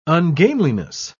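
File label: "ungainliness", English, uk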